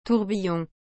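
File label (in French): "tourbillon", French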